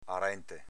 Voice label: "arente", Veneto; arčnte avv. vicino; Vocabolario Veneto-Italiano

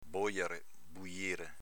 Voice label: "bojare", dialetto veneto

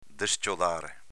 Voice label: "des-ciodàre" Veneto

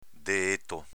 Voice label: "deéto" Veneto; s.m. dito mignolo

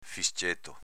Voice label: "fisceto", dialetto veneto; s.m. fischietto

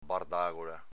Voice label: "bardagola", dialetto veneto

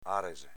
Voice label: "arese", dialetto veneto; s.m. legno di larice

